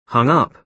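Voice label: "hung-up", British English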